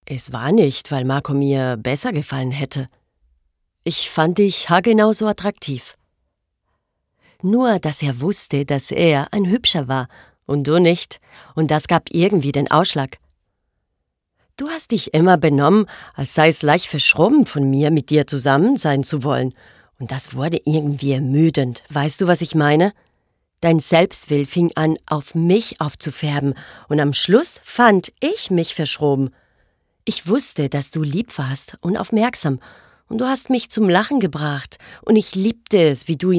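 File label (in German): Akzent Spanish, Schauspiel Neutral; Fremdtake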